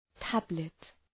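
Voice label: "tablet", English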